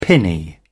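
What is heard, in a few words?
"pinny", British English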